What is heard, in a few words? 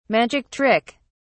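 "magic trick", British English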